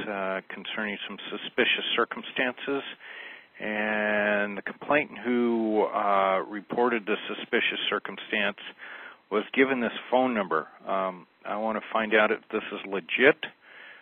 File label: police call; redmond